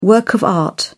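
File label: "work of art", British English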